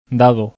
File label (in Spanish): d dado bizkaia